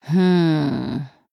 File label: Female Human Thinking